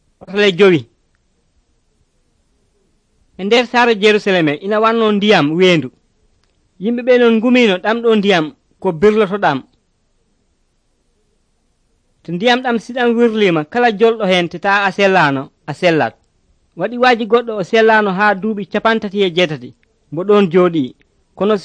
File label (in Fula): pulaar fulacunda, drama